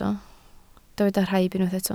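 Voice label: "rhaib" Welsh, spoken word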